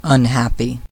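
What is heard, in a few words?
"unhappy", American English; pronunciations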